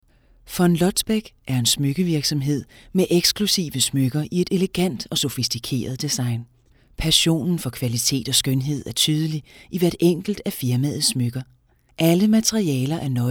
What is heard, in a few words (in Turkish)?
Danca Kadin, seslendirme